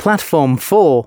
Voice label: announcements, male